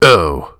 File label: PAIN; DN3D; Duke Nukem 3D